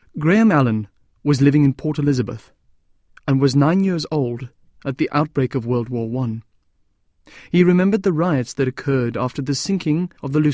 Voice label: Narration